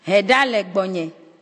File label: "leave me alone", Ewe